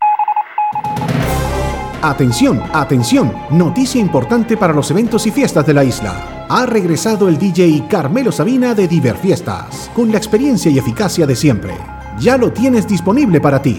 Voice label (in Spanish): Cuña; kiss-fm